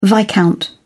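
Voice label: "viscount", English, British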